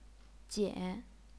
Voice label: "jian3", Chinese pinyin